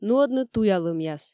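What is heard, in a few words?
"нуӧдны туялӧмъяс", Komi, female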